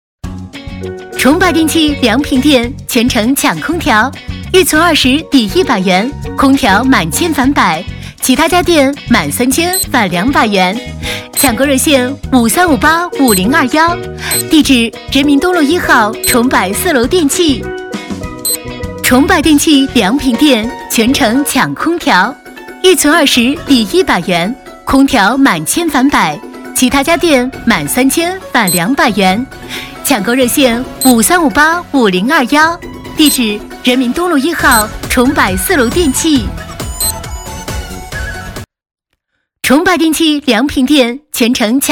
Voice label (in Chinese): 女 欢快广告; 重百